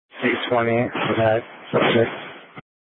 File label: Sheriff, scanner; Shrf Lakes